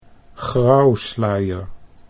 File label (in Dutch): "grauwsluier", Dutch